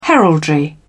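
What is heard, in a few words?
"heraldry", British English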